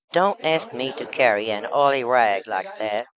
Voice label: speech est